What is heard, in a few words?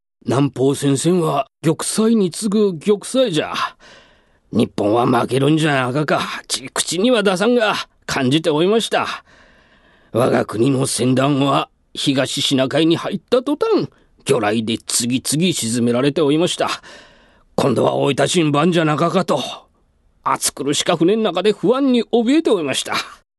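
Kagoshima dialect, male voice; 鹿児島弁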